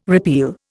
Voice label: "repeal", Indian

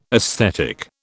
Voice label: "aesthetic", British

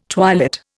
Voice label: "twilit", Indian

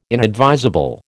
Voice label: "inadvisable", American

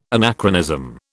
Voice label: "anachronism", British

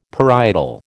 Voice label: "parietal", American

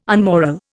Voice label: "unmoral", Indian

